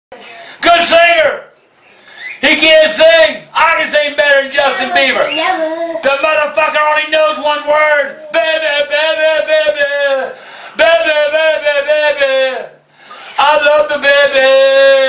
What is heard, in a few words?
Angry Grandpaaa